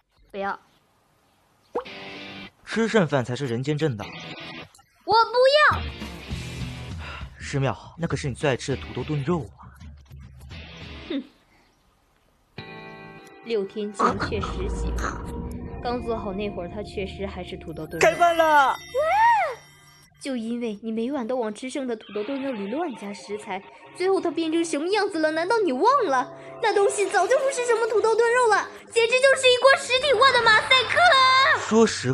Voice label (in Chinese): 角色配音